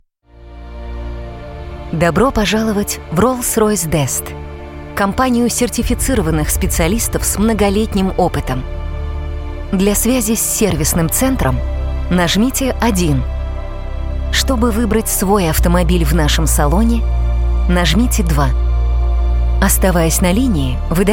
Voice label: IVR; Rolls-Royce